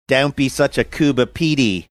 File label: saying dont be such a coober pedy australian